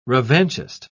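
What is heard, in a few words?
"revanchist", British English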